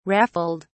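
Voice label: "raffled", British English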